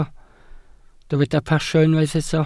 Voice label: "pasio", Welsh